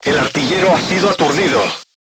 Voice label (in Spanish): voice message gunlayer contused, Spanish